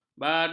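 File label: "barut", Malay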